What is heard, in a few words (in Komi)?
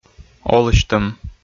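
"олысьтӧм", Komi, male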